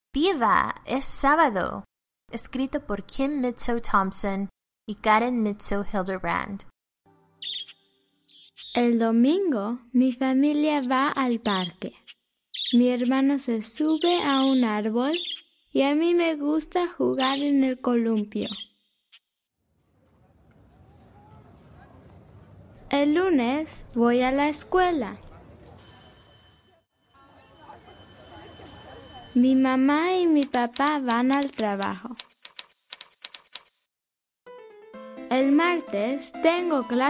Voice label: Spanish Narration; ¡Viva! ¡Es sábado!